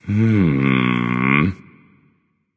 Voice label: thinking; smite; ravana

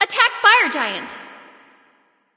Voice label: attack f; smite; bastet

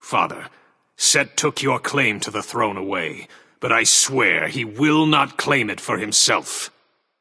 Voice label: taunt directed, voice; osiris; smite; voicepack; horus

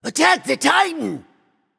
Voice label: vox attack; smite; raijin

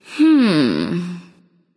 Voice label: thinking; daji

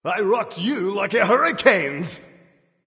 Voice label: taunt directed; kukulkan; smite; raijin

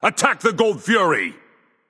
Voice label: vox attack; smite; hades

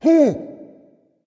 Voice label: fear dragon; fafnir

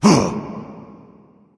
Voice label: grunt; smite; sobek

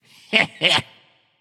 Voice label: hehheh; chronos

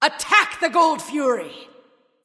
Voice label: vox attack; smite; arachne

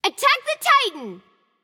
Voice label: VOX Attack M; smite; jingwei

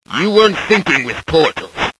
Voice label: taunt directed; chronos; janus

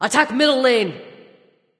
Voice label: vox attack; smite; skadi

